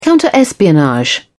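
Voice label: "counter espionage", British English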